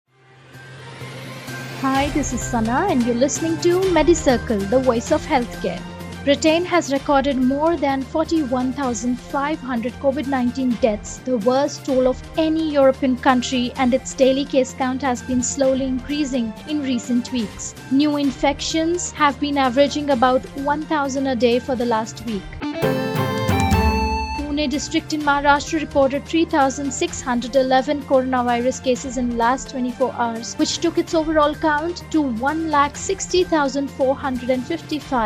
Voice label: english news